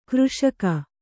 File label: "ಕೃಷಿಗೈ", Kannada